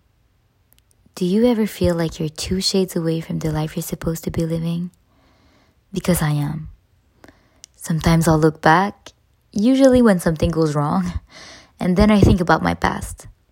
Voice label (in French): version anglaise